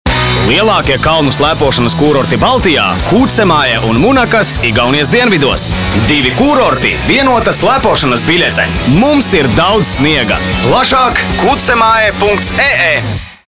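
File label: Latvian, male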